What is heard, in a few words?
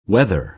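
"weather", American English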